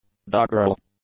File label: "doggerel", English; adjectives